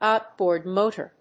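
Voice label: "OUTBOARD MOTOR", English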